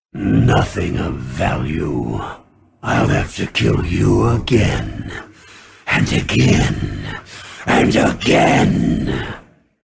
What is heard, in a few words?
mock, grunt sounds